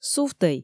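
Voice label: "сувтӧй", Komi, female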